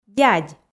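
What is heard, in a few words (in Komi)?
"дядь", Komi, female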